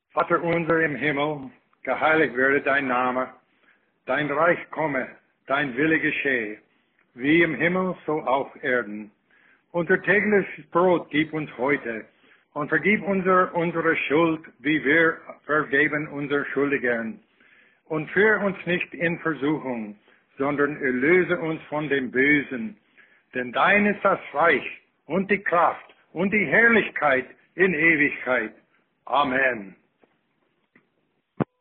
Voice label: german